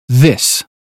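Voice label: "this", British phonetics; 英语音标发音（剑桥版）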